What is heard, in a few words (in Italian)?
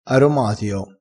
"aromatio", crespinese